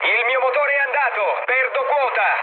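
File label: Italian damaged engine mood med, speech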